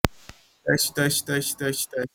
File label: voice message